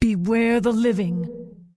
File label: Undead Female Warrior NPC Farewell